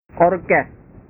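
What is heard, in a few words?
"orkes", Indonesian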